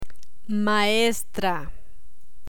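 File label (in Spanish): "maestra", Spanish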